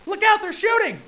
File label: look shooting, hostage reactions; cstrike